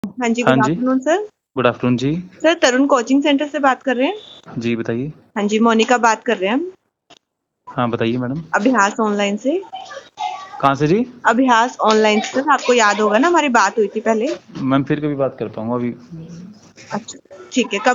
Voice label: call recording